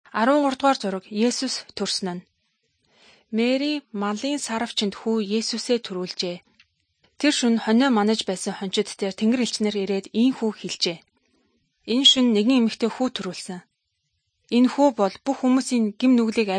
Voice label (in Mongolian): good news mongolian